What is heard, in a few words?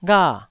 "gha4", Taiwanese syllable